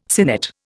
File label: "sinnet", Indian